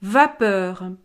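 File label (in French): "vapeur", French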